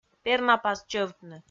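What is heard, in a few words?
"пернапас чӧвтны", Komi, female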